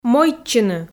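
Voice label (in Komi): "мойдчыны", Komi, female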